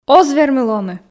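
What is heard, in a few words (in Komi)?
оз вермы лоны, Komi, female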